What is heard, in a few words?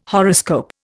"horoscope", Indian